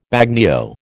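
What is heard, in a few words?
"bagnio", American English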